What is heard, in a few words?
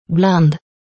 "Bland", English